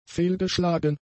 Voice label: "fehlgeschlagen", german; adjectives